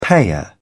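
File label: "payer", British English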